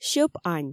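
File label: "сьӧп-ань", Komi, female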